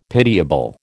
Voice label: "pitiable", American